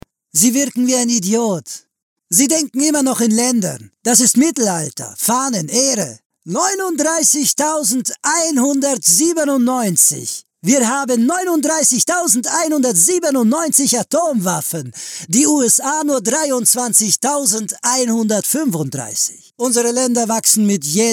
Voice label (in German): Hörspiel Rolle